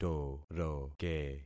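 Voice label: "toroke", concatenated syllables; Affixing experiment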